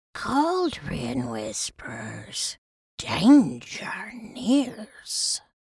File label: Witch fantasy sentence cauldron whispers